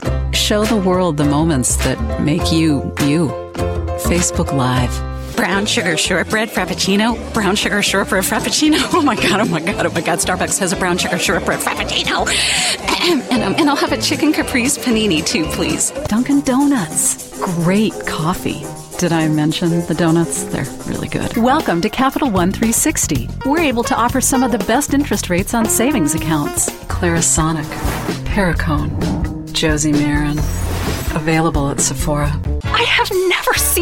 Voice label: Commercial

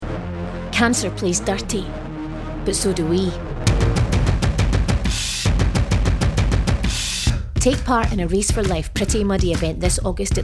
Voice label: Commercial; Pretty Muddy

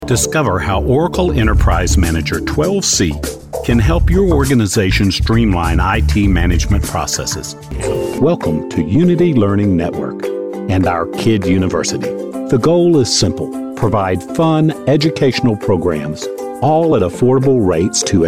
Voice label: ELearn

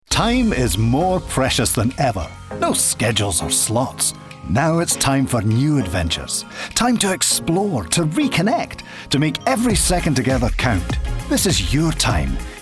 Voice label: Commercial Scots; Scottish Holiday Cottages

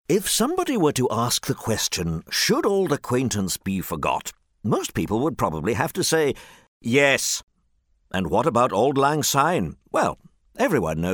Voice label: Advert; Drambuie

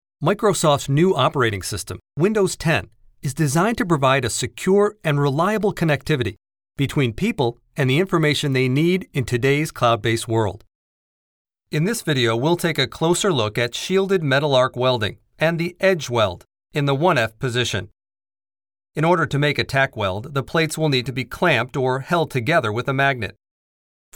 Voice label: eLearning

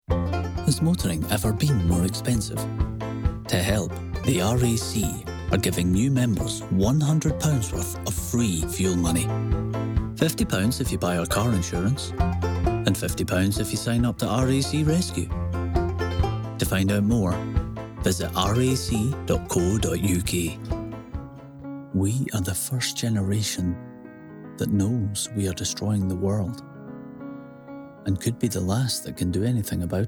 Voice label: Commercial

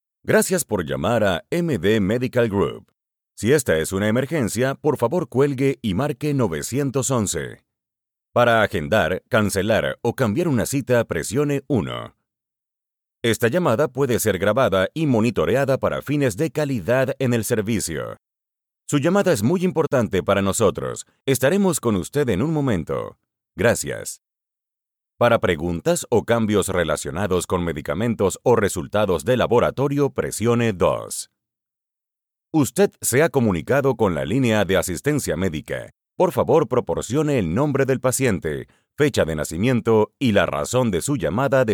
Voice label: spanish IVR

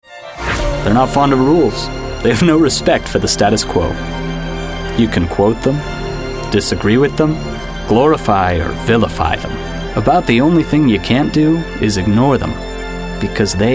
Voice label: Commercial

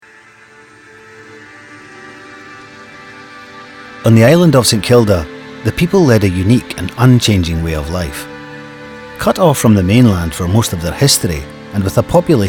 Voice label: Documentary Excerpt; St Kilda

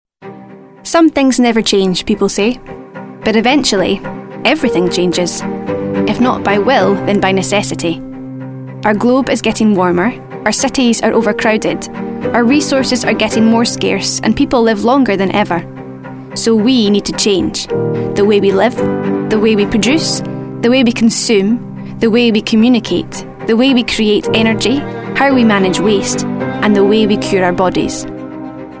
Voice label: Corporate

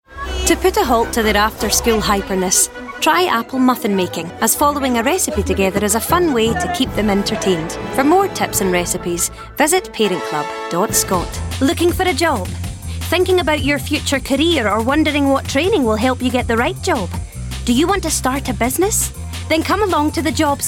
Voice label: commercial showreel

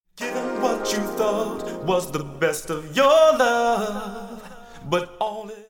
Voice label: Vocals ('your love')

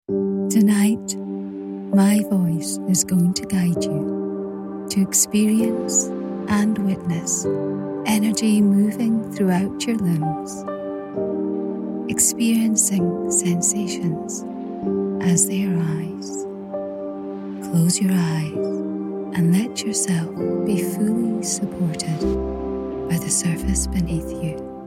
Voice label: Sleep App Extract

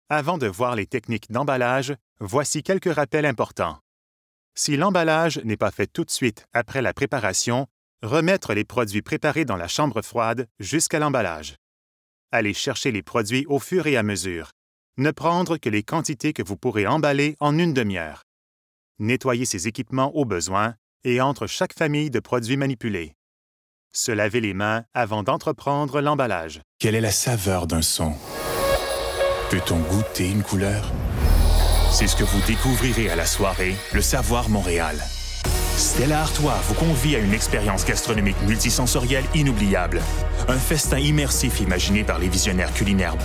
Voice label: eLearning

